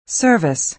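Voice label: "service", American English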